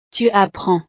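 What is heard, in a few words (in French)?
"tu apprends", French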